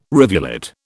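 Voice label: "rivulet", British English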